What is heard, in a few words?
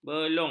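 "belung", Malay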